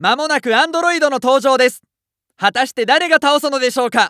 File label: Announce New Boss Prep, JPN; Eternal Return